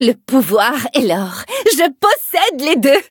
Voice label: levelup, fr; cookie run

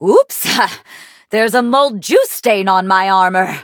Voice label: levelup, en; cookierunwiki

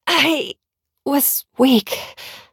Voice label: hit, en; cookie run

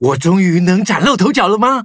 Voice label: levelup zh-hant; cookie run

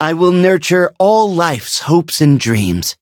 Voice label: loading, en; Cookie Run

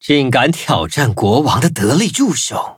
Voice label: battle, zh-hant; Cookie Run

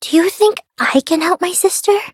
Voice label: level up, en; Cookie Run

